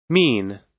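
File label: "mean", US English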